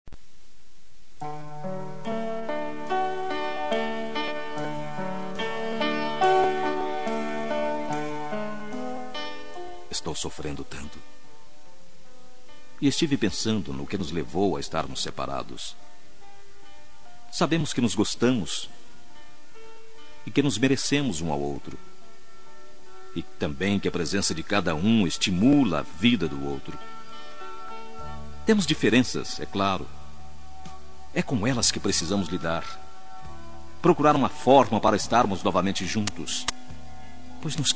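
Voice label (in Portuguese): RECONCILIACAO masculino; Telemensagens 2017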